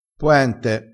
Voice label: "poente", dialettale, crespinese

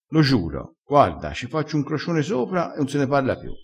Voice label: "fa un crocione", crespinese

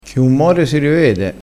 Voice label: "chi un more si rivede", crespinese

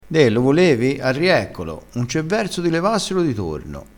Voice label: "arrieccolo", crespinese

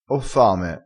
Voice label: "levassi la sete", crespinese

